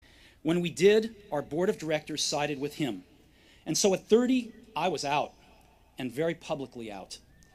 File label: Commencement Address; Steve Jobs; 2005; Stanford